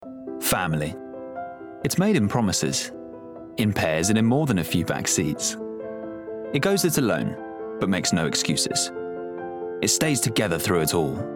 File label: Commercial Assured smooth engaging; Volvo